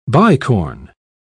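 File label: "bicorn", British English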